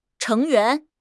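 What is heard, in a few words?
"成员", Chinese; HSK6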